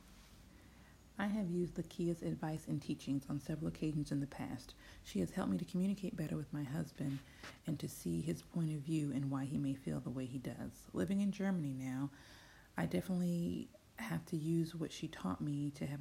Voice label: Testimonial; drama queen